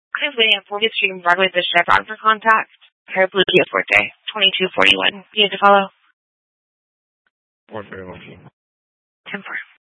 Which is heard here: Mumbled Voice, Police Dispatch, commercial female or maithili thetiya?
Police Dispatch